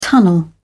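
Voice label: "tunnel", British English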